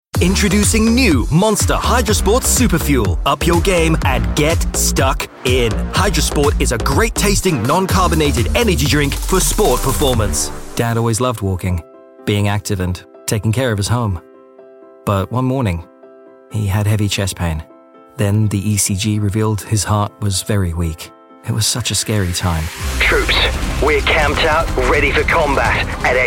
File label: english british commercial, robotic